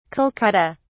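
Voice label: "kolkata", English, British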